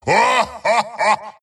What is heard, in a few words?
skeleton king wraith laugh